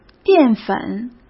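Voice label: "淀粉", Mandarin Chinese; chinese flashcards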